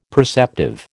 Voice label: "perceptive", American